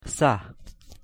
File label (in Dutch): "চাহ", Assamees; thee-in-andere-talen